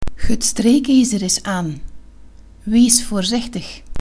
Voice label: the iron is on, dutch